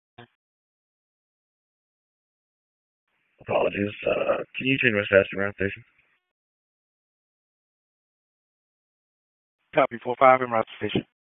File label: PS TAC, p25; Wake